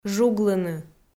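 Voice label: "жуглыны", Komi-Zyrian, female; audio dict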